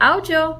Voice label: goodbye, gujarati

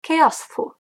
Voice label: "where from", Irish

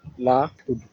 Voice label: my name is, wolof

